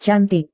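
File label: "cantik", Indonesian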